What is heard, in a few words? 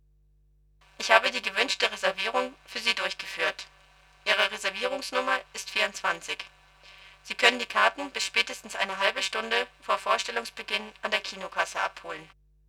Saw slow; SmartKom; Prototypen Stimme